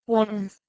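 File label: "wodn", Proto-Indo-European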